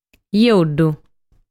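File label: "Joddu", Sardinian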